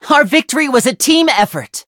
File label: victory, en; cookie run